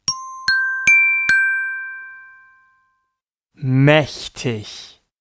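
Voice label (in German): "mächtig", German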